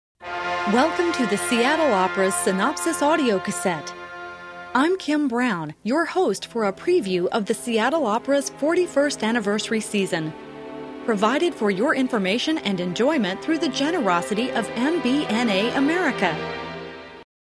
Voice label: Commercial